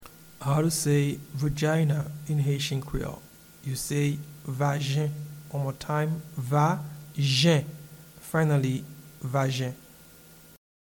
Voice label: Vagina in Haitian Creole Vajen, audio pronunciation; “Vagina” (English) translates as “Vajen” in Haitian Creole